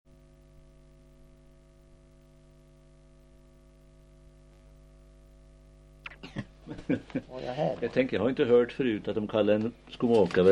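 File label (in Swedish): Balingsta Uppland, dialekter; Svenska sagor; Folkminnen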